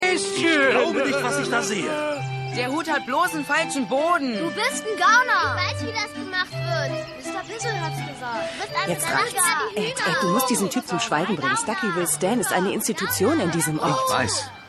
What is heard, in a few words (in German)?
Kinder